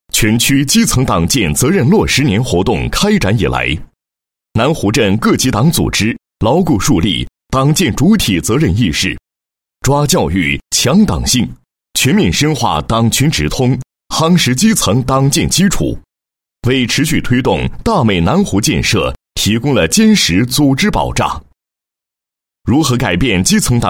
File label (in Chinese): 男80 党建; 某镇基层党建工作纪实; 央视农广